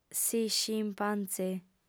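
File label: "s'iscimpantzè", female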